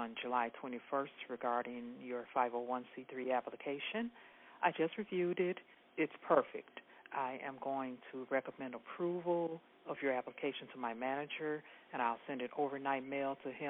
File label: Internal Revenue voicemail